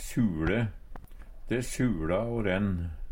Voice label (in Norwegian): suLe, Numedalsmål; Verb; Planteriket